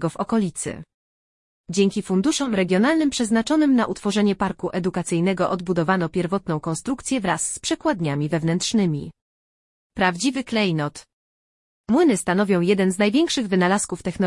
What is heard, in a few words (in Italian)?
Audioguida POL Mulinetto del Caglieron